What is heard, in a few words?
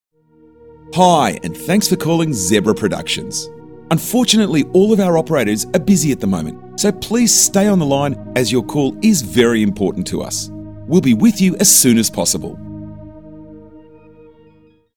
aussie aus